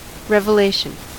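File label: "revelation", US English; pronunciations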